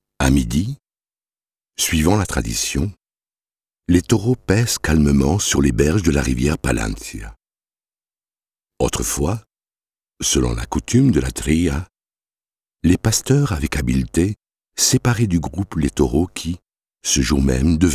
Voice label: locutor frances

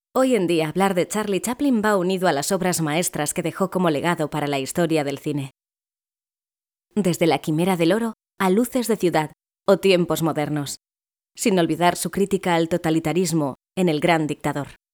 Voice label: locutora española

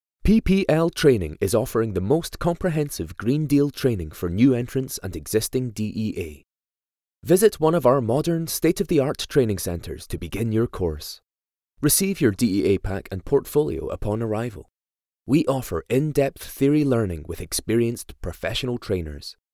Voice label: locutor ingles UK

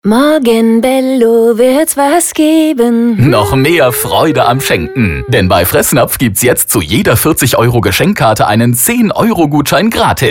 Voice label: locutor aleman

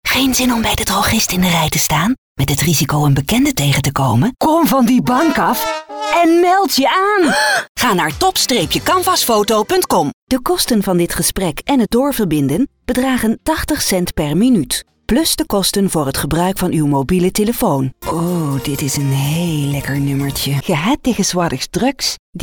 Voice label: locutora holandesa

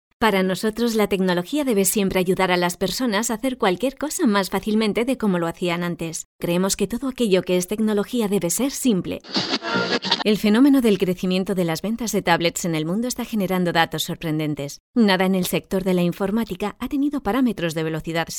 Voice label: locutora española

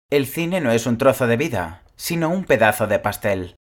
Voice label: locutor español

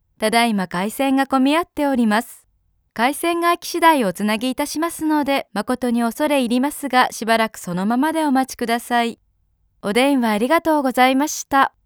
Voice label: locutora japonesa

